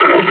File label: pain